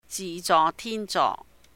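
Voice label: "Jih Joh Tìn Joh", God helps those who help themselves, Cantonese; 自助天助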